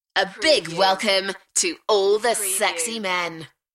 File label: UK female A big welcome to all the sexy men; beanstalk audio